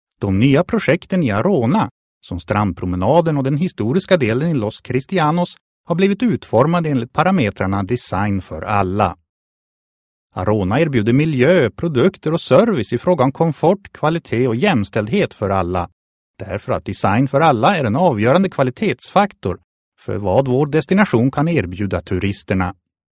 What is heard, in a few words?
swedish voiceover locutor sueco